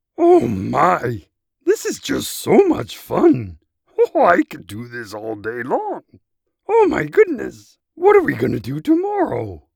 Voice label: Cartoon Character Grover Like